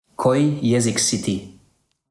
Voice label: kroatisch

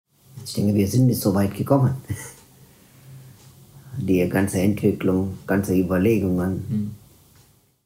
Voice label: tamilisch sri lanka

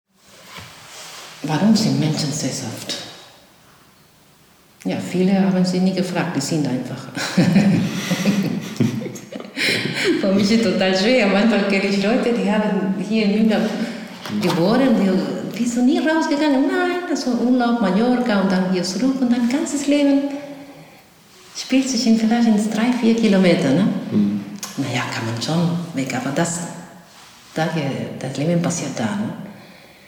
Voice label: spanisch

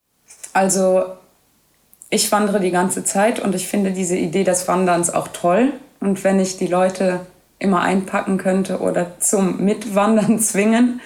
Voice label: luxemburgisch

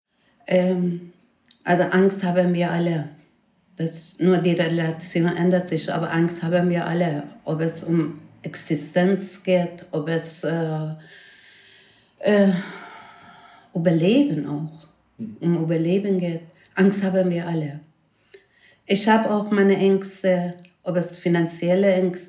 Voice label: persisch

